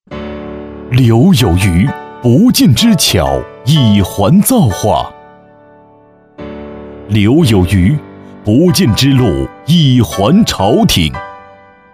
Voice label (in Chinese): 男 浑厚有力